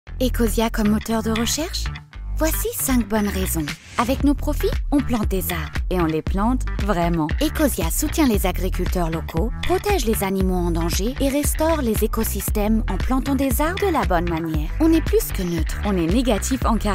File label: Commercial, FR; 5 Reasons; osia